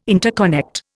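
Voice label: "interconnect", Indian English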